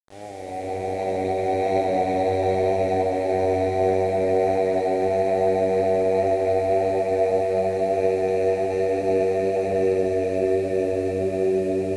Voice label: godvoice

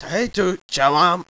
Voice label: viet good morning commander

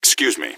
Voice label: excuse me, gasmask; scmod

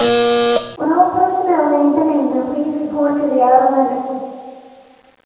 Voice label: announce

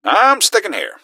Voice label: im sticking here, gasmask; scmod

